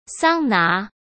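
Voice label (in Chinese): "桑拿", Mandarin Chinese; chinese flashcards; hsk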